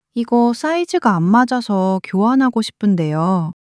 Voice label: sadness, monologue